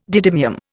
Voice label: "didymium", Indian